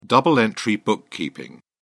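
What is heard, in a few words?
"double-entry bookkeeping", British English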